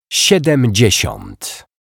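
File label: "siedemdziesiat", Polish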